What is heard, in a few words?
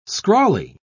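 "scrawly", British English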